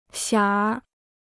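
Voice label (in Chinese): "馅儿" Chinese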